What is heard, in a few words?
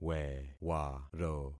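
"wewaro", concatenated syllables; Affixing experiment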